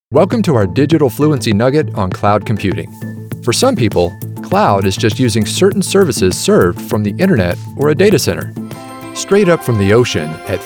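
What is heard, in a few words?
male voice, narration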